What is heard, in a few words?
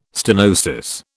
"stenosis", British